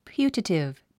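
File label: "putative", English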